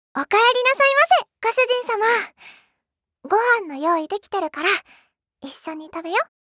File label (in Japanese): シチュエーションボイス